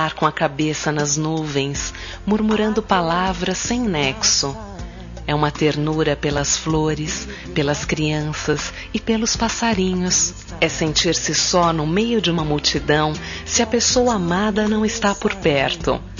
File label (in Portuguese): Telemensagem Romântica - Amar É - Voz Feminina - Mensagem de Amor